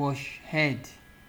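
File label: "wash head", Nigerian Pidgin, male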